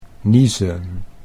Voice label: "niezen", Dutch

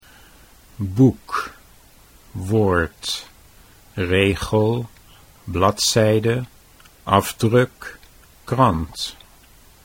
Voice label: "boek woord", Dutch

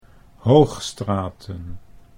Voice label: "Hoogstraten", Dutch

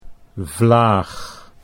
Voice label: "vlaag", Dutch

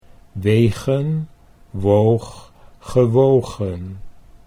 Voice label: wegen woog gewogen, Dutch

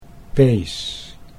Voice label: "pees", Dutch

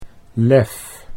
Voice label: "lef", Dutch

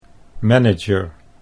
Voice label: "manager", Dutch

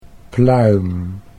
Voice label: "pluim", Dutch

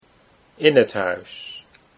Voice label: "in t huis", Dutch

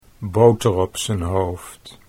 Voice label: boter op z'n hoofd, Dutch

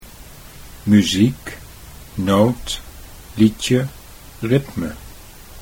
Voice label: "muziek noot", Dutch

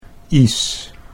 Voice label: "isch", Dutch

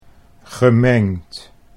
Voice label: "gemengd", Dutch

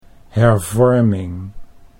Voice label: "hervorming", Dutch

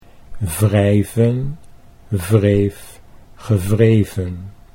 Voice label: wrijven wreef gewreven, Dutch

